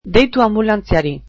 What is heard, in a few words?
Call the ambulance, basque